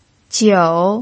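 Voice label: "jiu" third tone, pinyin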